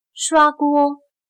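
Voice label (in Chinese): "刷锅" Mandarin Chinese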